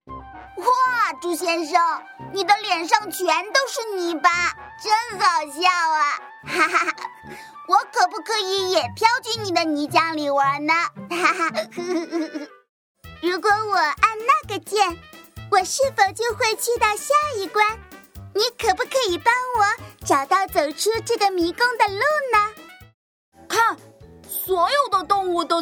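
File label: Mandarin, toys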